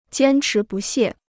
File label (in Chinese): "坚持不懈", Chinese